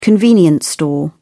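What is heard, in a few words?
"convenience store", British English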